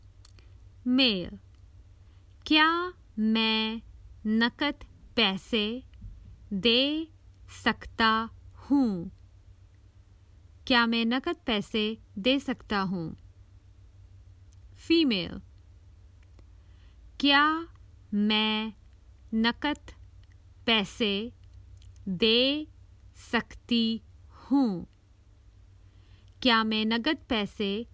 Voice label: May I pay with cash, Common Hindi Phrases for travel